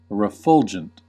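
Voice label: "refulgent", American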